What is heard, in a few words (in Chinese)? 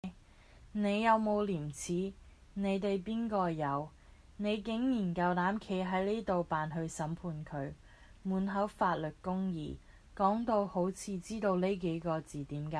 女生獨白; 《阿果斯城命案》